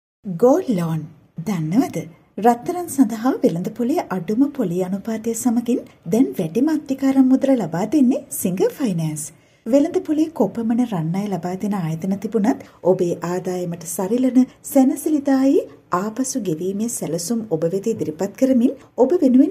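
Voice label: Sinhala female voice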